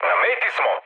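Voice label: Serbian near waypoint mood low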